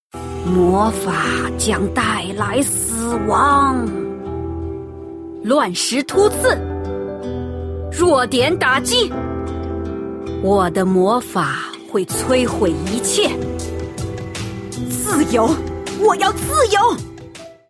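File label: female game voice sample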